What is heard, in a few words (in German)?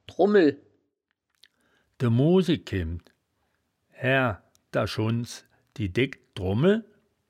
"drummel", woerterbuch, Wittlich